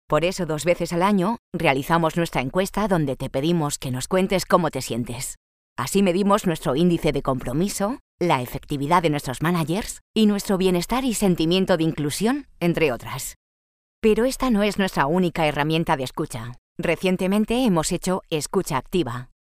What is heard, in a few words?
locutora spanish voiceover